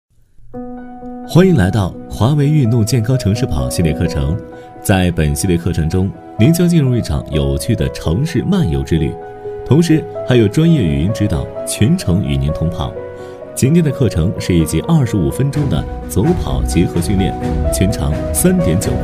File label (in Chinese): 男 课程讲解 轻松自然; 华为云课堂; 男D5-厚重有力; 轻松活泼